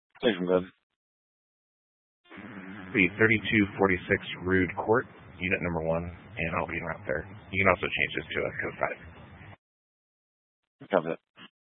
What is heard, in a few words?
Sheriff Dispatch; Mesa County